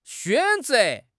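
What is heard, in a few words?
"xuan3 ze2", Mandarin Chinese; HSK3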